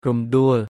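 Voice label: "រំដួល", Khmer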